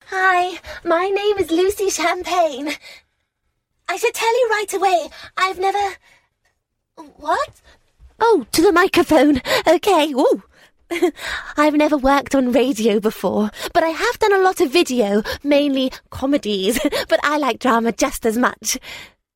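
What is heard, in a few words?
English UK, Narrative; GoLocalise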